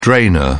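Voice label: "drainer", British English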